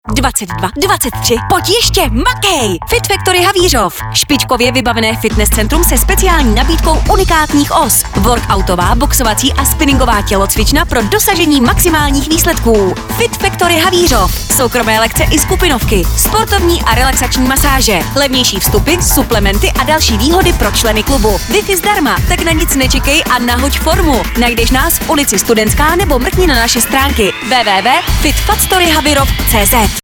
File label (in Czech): reklamni spot; albert